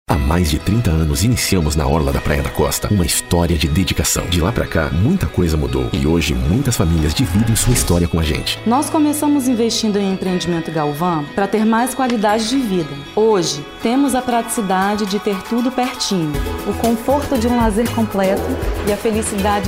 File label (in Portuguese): SUAVE PADRÃO